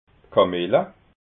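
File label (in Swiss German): "kamilla", Alsatian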